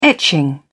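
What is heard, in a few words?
"etching", British English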